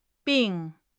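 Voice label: "bing4", Mandarin Chinese; HSK4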